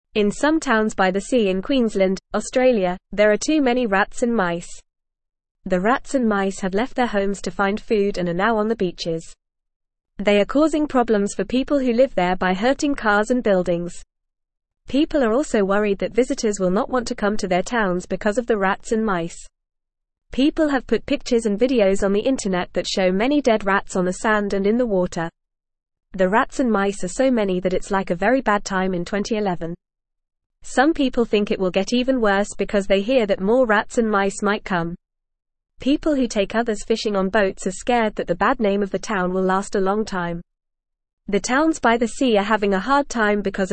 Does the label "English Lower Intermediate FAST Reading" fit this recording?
Yes